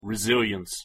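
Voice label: "resilience", English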